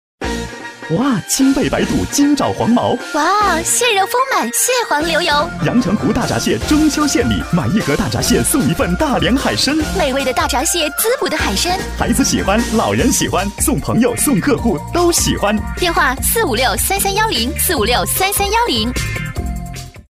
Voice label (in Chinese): 男 促销广告 阳光欢快促销大闸蟹